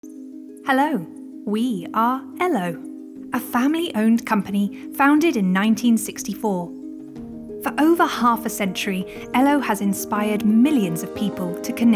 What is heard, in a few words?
UK Trade film; Elho